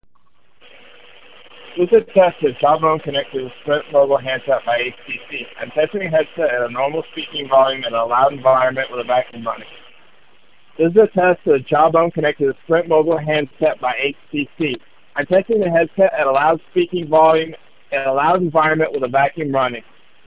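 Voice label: vacuum, Bluetooth headset; Jawbone; Mogul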